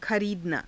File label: "khariidanaa", Hindi